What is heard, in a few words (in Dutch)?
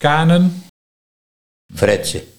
"Fretsen", Nieuw lekkerlands dialect